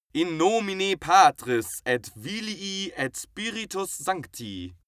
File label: male pray latin